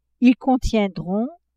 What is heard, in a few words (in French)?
"ils contiendront", French